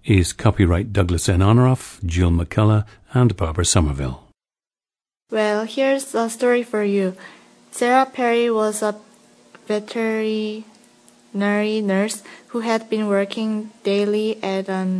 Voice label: south korea